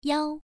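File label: "yao1", Chinese pronunciation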